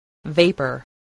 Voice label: "vapor", English